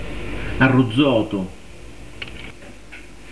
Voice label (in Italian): "arruzzotu", pronuncia, dizionario carigliaro